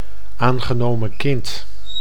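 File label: "aangenomen kind", Dutch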